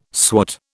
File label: "swat", British